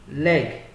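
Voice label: "leg", Nigerian Pidgin, male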